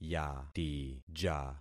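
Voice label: "yatija", concatenated syllables; Affixing experiment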